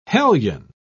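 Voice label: "hellion", British English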